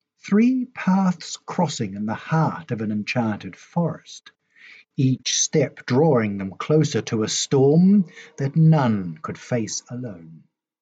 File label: Narrator Three paths crossing, little girl narrator